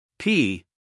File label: p, us guy